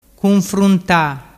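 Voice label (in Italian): "cunfrunta", dialect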